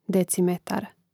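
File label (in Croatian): "decimetar", Croatian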